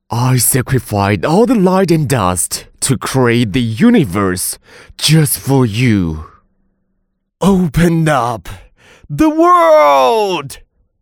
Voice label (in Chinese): 英男-角色; 盘古